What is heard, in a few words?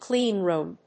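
"clean room", English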